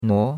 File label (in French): "nuo2", chinois; Cours-de-Chinois